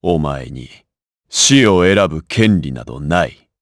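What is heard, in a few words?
vox get Japanese; Lusikiel; kingsraid; jp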